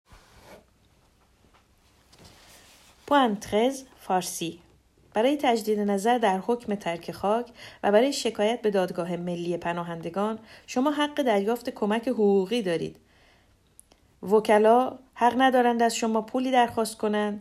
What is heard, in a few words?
farsi